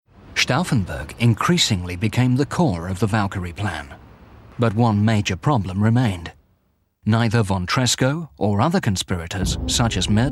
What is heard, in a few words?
documentary